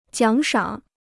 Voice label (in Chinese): "奖赏", Chinese